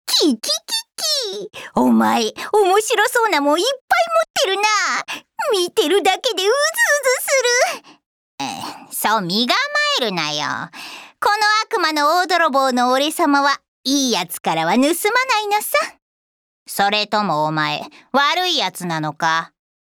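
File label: voice Japanese; Tabethe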